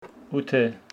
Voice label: Homshetsi eight

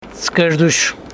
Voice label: Homshetsi burp

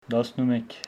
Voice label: Homshetsi eleven

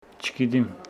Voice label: Homshetsi i do not know

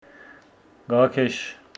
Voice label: Homshetsi december

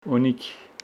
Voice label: Homshetsi we have

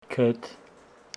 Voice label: Homshetsi river

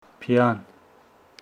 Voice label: Homshetsi mouth

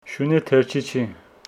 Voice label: Homshetsi the dog does not run

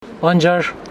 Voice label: Homshetsi karalahana

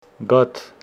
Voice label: Homshetsi milk

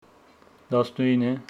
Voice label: Homshetsi nineteen

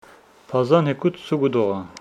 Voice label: Homshetsi tomorrow the eage will eat fish

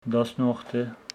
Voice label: Homshetsi seventeen

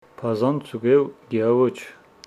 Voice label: Homshetsi the eagle did not eat fish

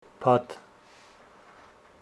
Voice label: Homshetsi wood

